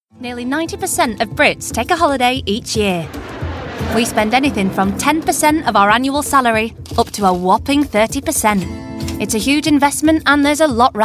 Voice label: Narration